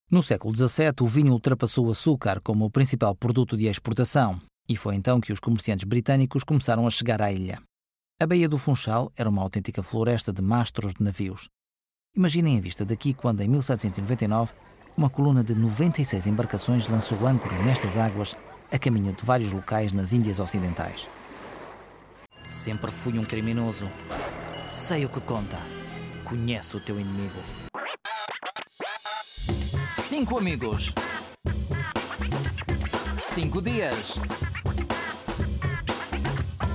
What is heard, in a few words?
Portuguese